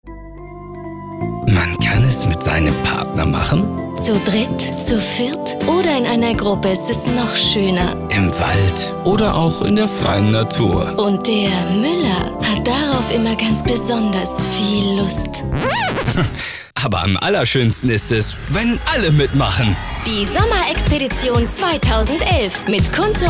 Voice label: promo